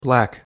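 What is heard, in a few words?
pronunciation "black", English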